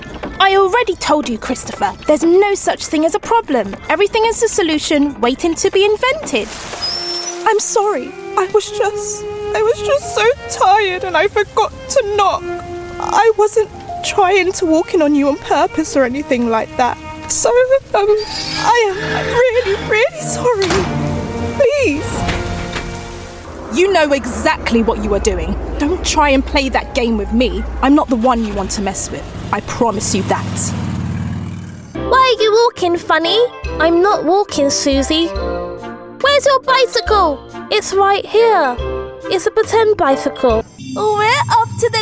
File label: female English animation compilation